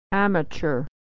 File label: "amateur", pronunciation